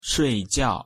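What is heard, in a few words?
pronunciation "睡觉", Chinese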